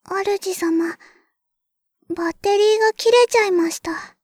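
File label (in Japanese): バッテリー切れ, システムボイス; ベル・カールステッド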